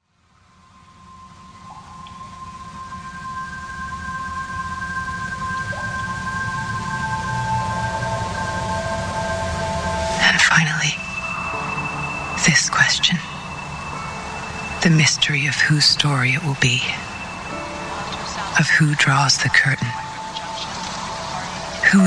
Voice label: closing narration

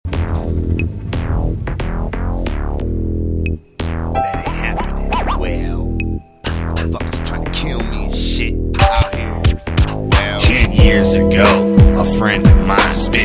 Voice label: narration

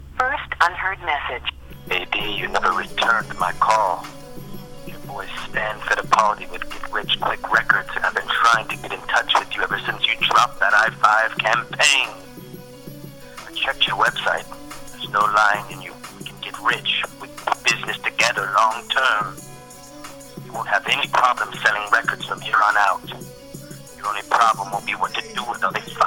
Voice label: voicemail

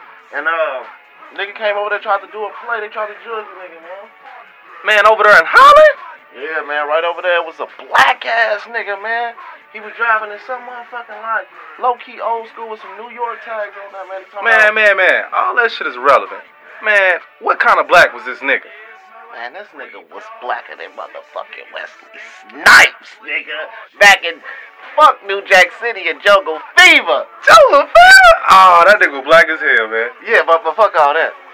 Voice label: phone call interlude

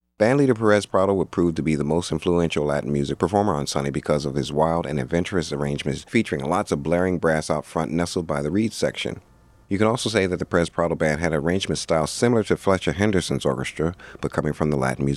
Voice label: narration the afro cuban influence; perez prado 1947

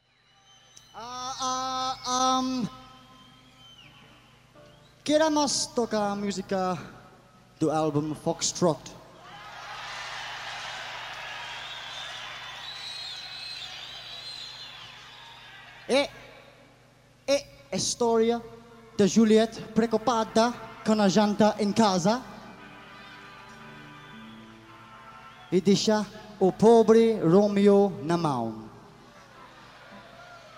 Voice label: talking with crowd

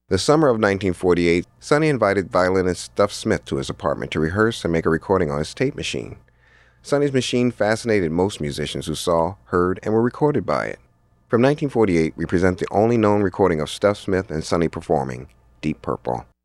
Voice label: narration

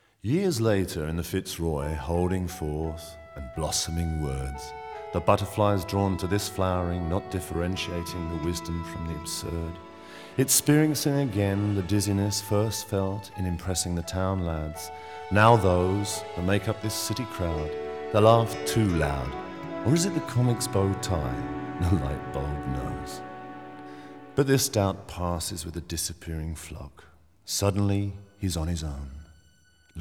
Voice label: narration

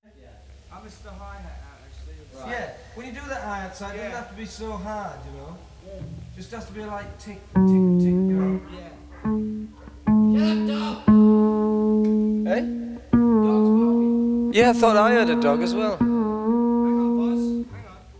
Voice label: studio chat dialogue

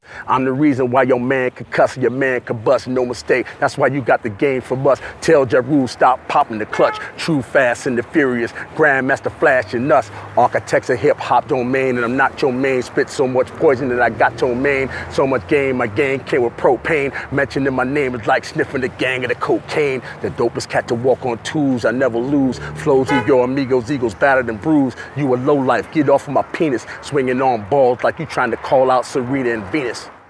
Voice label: freestyle live acapella

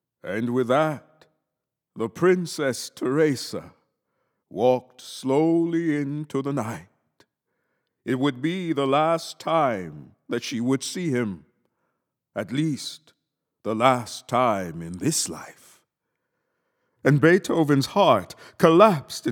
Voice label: narration

